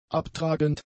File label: "abtragend", german; adjectives